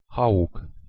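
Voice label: "h2eug", Proto-Indo-European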